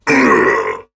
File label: Pain Heavy; Warframe; Dax Heavy; Battle Barks